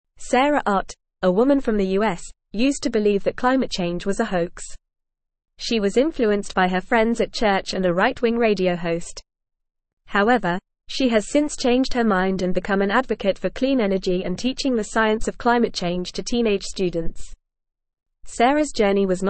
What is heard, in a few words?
English Advanced FAST Reading; English Newsroom; Former Climate Change Skeptic Transforms into Clean Energy